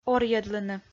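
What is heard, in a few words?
"орйӧдлыны", Komi-Zyrian, female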